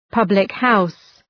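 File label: "public house", English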